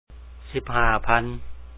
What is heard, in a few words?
15000, Lao; ສິບຫ້າພັນ; si2p ha3a phán